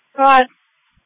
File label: Sheriff Dispatch